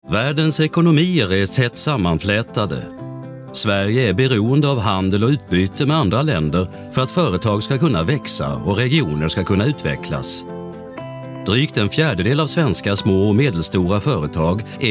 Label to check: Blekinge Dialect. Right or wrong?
Right